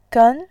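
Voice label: "gen", Mandarin Chinese, second tone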